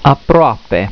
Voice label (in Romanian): "aproape", romanian